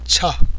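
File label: "cha", Tibetan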